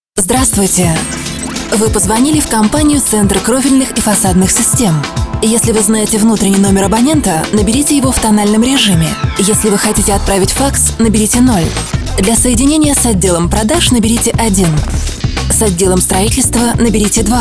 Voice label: golosovoe privetstvie ivr; Avtootvetchik